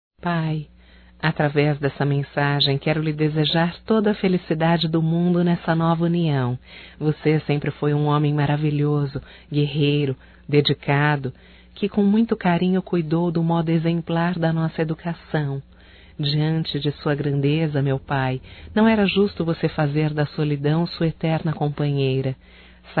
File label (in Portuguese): PARABENS PELO CASAMENTO DO PAI (F), Telemensagens, fem; Telemensagens 2017; Casamentos